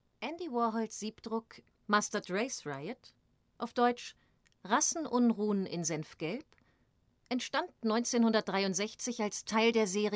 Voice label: Audioguide